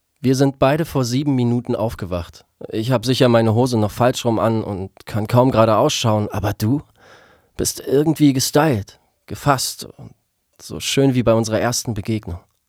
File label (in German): Stimme Synchron; LOFT VOICES